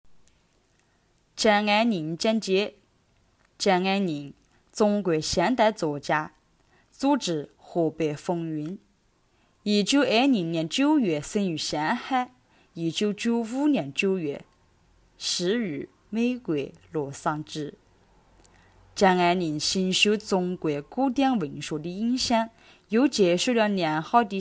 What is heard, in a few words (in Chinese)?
简介方言版; 张爱玲简介方言版